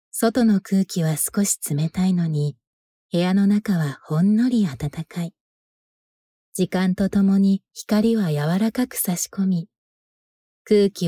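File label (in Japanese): 落ち着き, ナレーション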